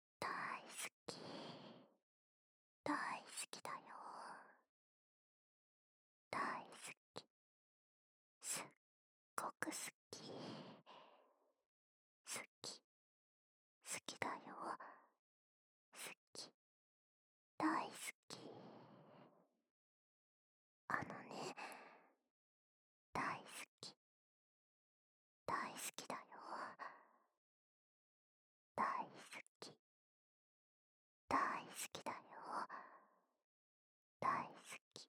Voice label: 囁き 大好きだよ

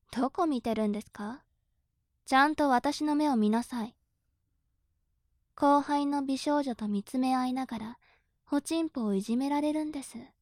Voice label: 調教中音声, ASMR

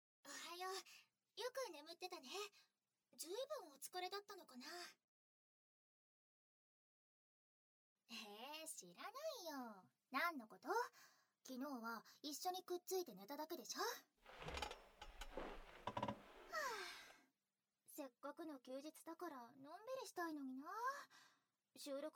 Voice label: おはようボイス(手コキ付き); 声優カノジョ; SEon

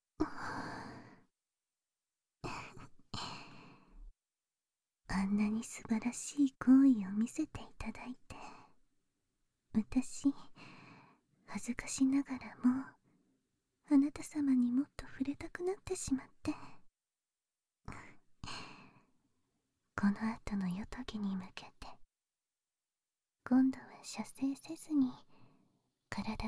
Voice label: 耳責め焦らし バイノーラル 効果音あり, ASMR

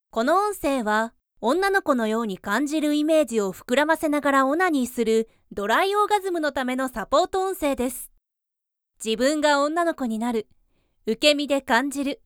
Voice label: イントロダクション, ASMR

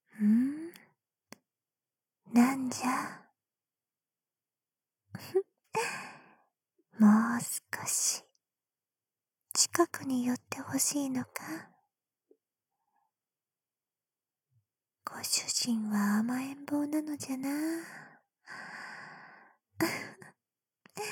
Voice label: 添い寝, ASMR; 簡易モノラル編集

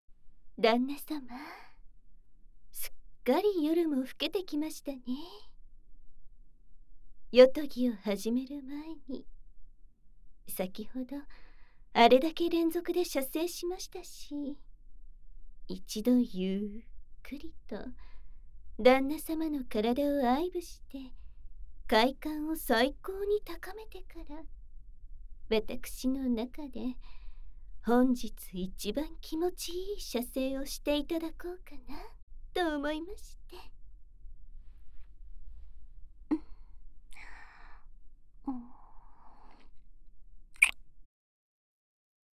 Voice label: 囁き甘々焦らし前戯（簡易モノラル編集 効果音なし）, ASMR

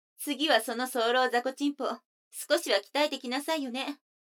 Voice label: 女の子 台詞

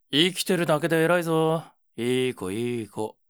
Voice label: homeru, ASMR

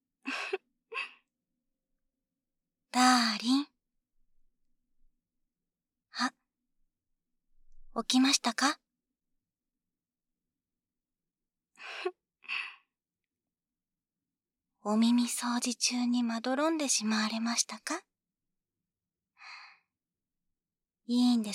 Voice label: 左 声のみ

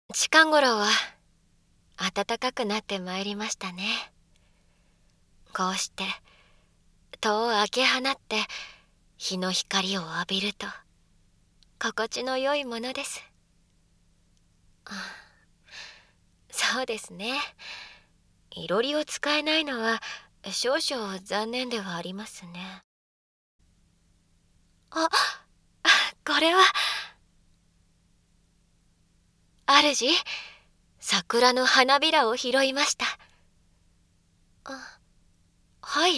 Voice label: 声のみ ノイズ除去なし; 雪夜さんと交際; 効果音なしver

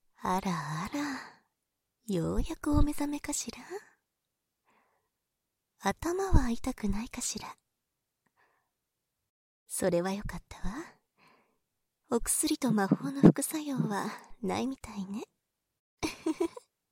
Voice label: オープニング（バイノーラルマイク 効果音なし）

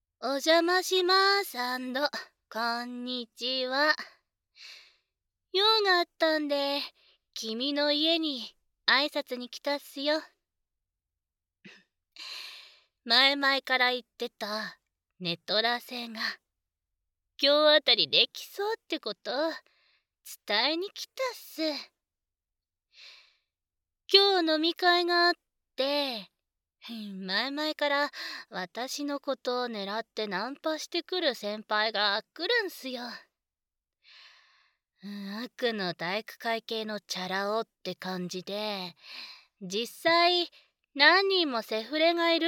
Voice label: バイノーラル, ASMR

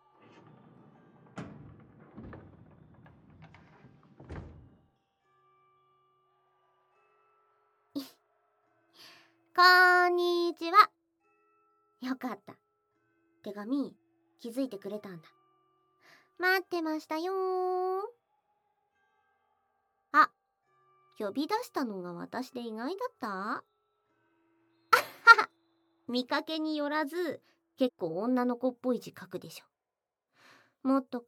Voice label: ささやき手コキ

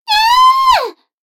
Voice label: 女の子台詞

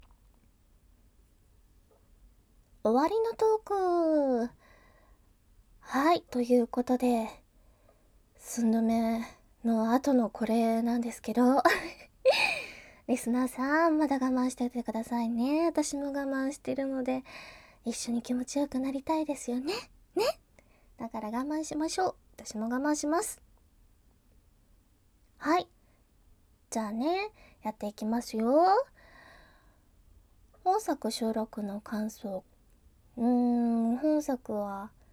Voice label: 終わりの挨拶 ステレオ

